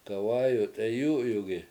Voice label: horse gallops, Washo; gawAyu t7eyU7yugi